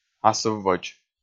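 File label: "асывводз", Komi, male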